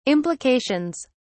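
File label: "implications", British English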